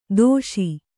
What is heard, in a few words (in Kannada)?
"ದೋಷಿ", Kannada